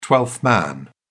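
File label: "twelfth man", English, British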